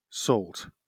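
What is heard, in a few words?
"salt", British English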